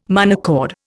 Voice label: "monochord", Indian

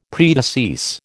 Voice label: "predecease", American

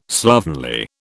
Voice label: "slovenly", British

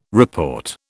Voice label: "report", British

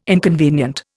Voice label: "inconvenient", Indian

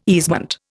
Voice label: "easement", Indian

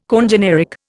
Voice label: "congeneric", Indian

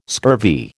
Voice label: "scurvy", American

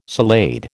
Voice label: "salade", American

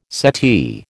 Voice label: "settee", American

